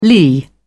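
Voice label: "lee", British English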